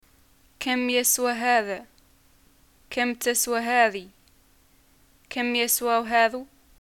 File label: shopping how much, arabic